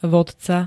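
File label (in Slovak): "vodca", Slovak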